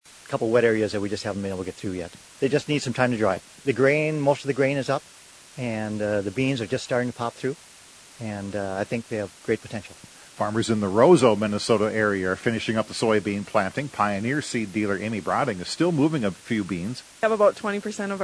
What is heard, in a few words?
air check; Pioneer